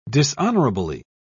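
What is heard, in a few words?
"dishonourably", British English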